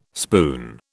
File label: "spoon", British